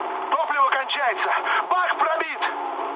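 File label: tank damaged, mood med, Russian; War Thunder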